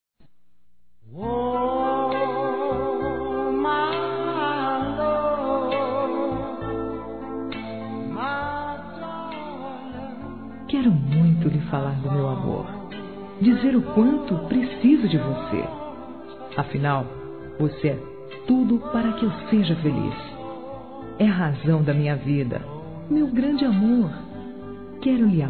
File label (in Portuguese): ROMANCE GHOST F, Telemensagens Românticas